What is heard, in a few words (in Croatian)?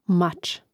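"mac", Croatian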